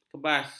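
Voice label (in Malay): "kebas", Malay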